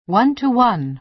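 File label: "one-to-one", American English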